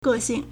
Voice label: "ge4 xing4", Chinese

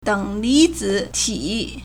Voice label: "deng3 li2 zi3 ti3", Chinese

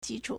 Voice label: "ji1 chu3", Chinese

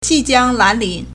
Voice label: "ji2 jiang1 lan2 lin2", Chinese

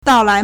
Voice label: "dao4lai2", Chinese

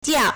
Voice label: "jia4", Chinese

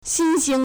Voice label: "xin1xing2", Chinese

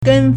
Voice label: "gen1", Chinese

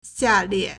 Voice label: "xia4lie4", Chinese

